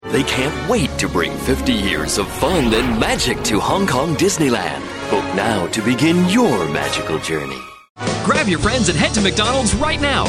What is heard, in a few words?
TVC; US